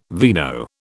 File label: "vino", British